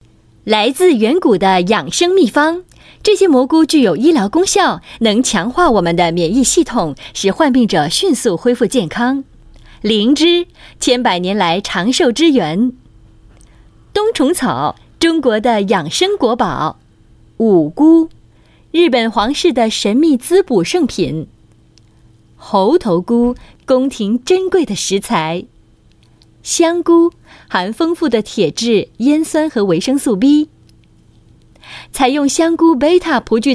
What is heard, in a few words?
Mandarin, Mandarin China Female; Brands Mushroom